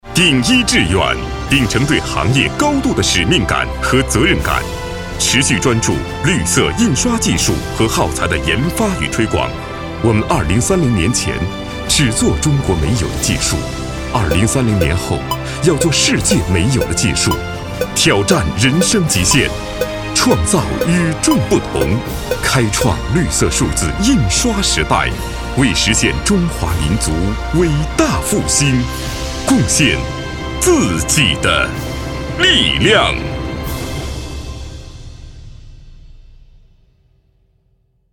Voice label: 企业大气宣传激昂高亢; 鼎一

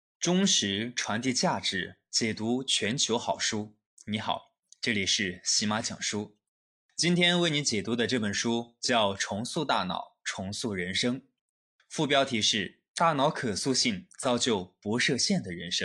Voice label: 讲书 试音

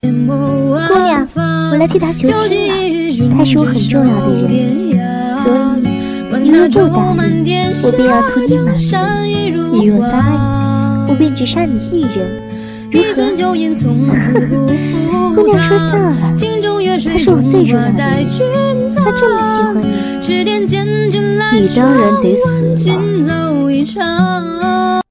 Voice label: 少女 配音

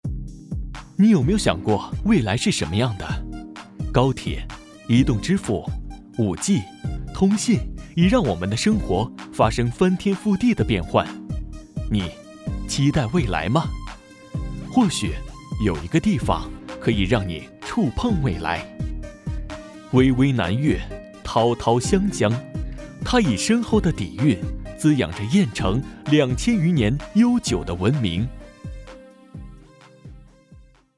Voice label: 年轻宣传

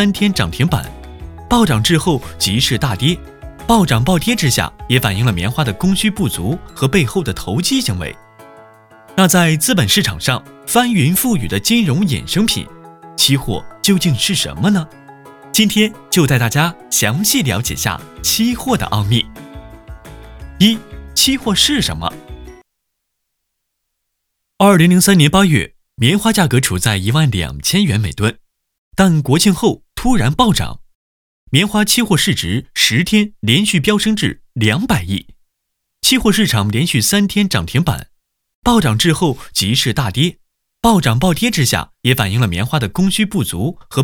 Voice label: 期货 试音, 年轻干净男声; 了解期货的奥秘

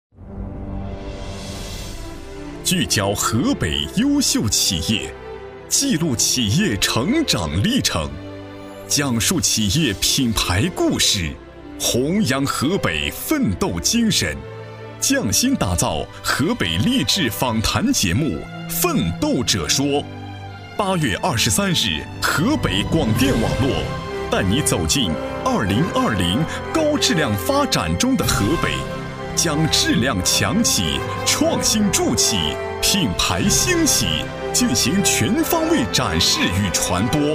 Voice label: 大气磅礴; 大气磅礴河北广播电视奋斗者说

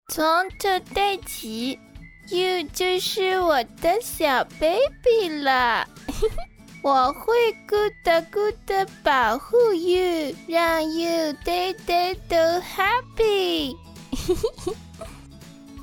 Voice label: 年轻活泼

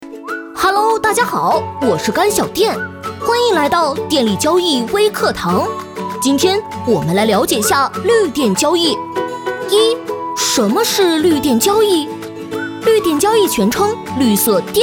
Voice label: 男童

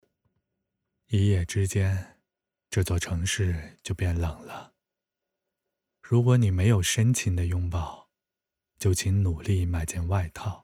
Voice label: 电台

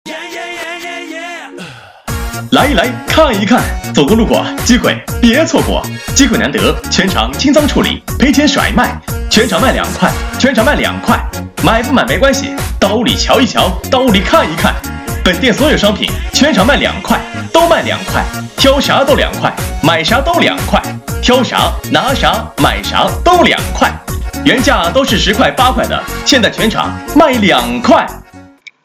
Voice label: 全场两块促销配音

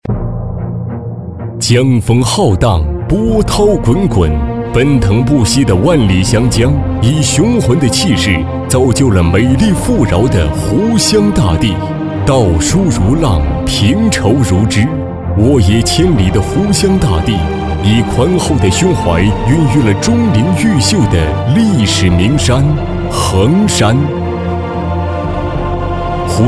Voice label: 专题大气浑厚

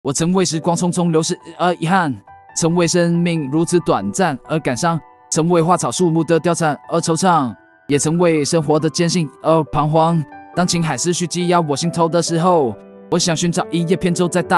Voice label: 说唱小伙

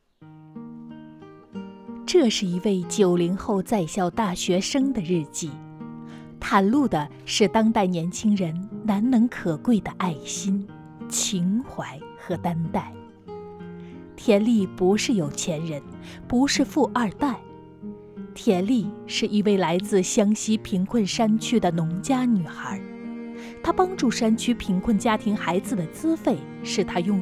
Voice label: 温情 人物 专题 女声

